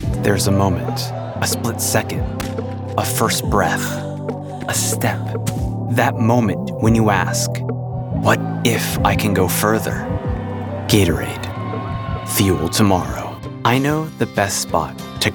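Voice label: demo commercial, Voice Over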